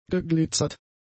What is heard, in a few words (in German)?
"geglitzert", german; adjectives